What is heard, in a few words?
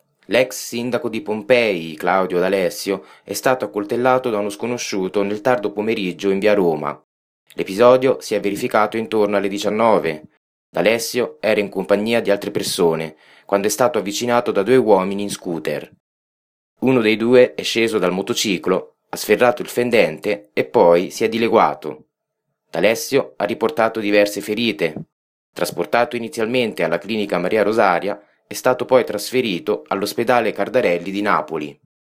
news